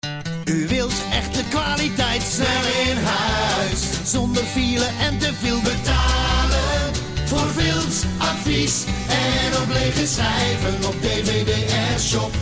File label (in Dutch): Reclamespot vrouw